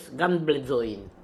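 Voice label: "Hanbledzoin", pronunciations; RUS; Fourth Way; Beelzebub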